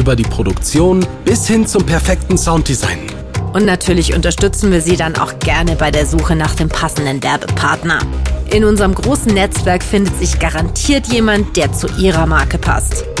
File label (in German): Podcast Trailer; Echion